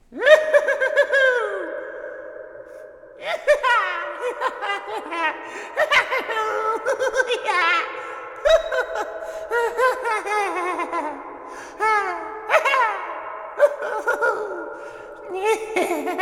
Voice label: Voices Crazy Chatter; Gribble